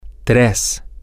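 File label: three, spanish, female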